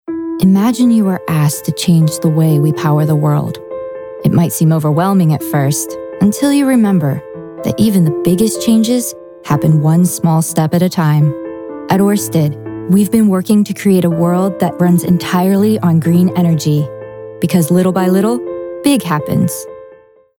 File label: Warm Trustworthy Authoritative